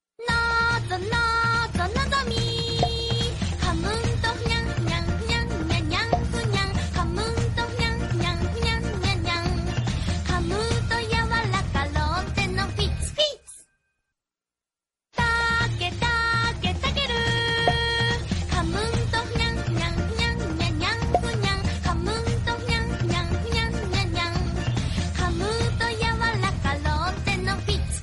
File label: japanese commercial; ringtone